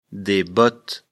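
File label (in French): "bottes", French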